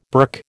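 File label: "burk", American English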